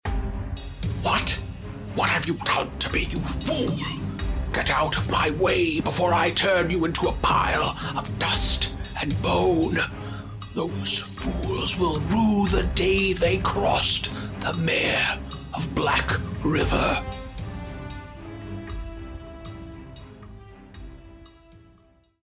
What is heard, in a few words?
Intense